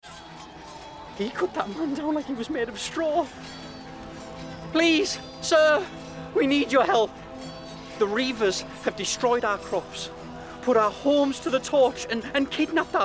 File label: gaming montage, voiceover